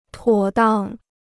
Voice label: "妥当", Chinese

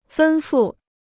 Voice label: "吩咐", Chinese

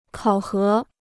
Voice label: "考核", Chinese

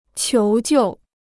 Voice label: "求救", Chinese

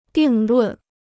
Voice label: "定论", Chinese

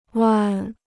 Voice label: "弯", Chinese

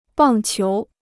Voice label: "棒球", Chinese

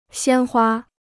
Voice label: "鲜花", Chinese

